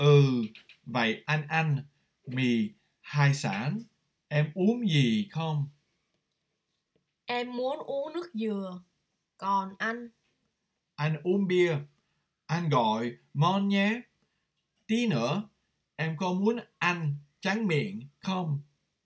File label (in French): Dictée